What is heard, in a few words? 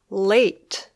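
"late", English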